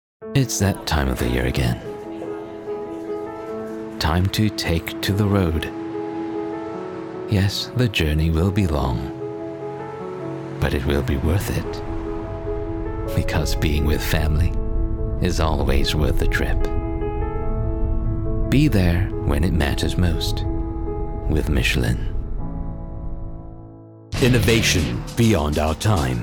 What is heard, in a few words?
Commercials